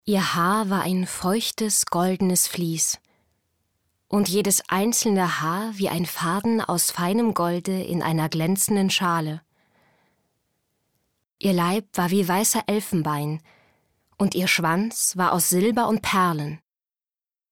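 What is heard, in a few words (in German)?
Erzählung; LOFT VOICES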